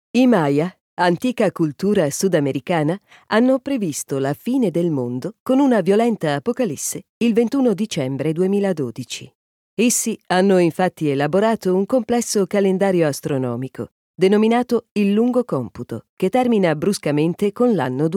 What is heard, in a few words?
ITA Documentary